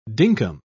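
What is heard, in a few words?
"dinkum", British English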